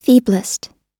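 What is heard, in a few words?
"feeblest", American English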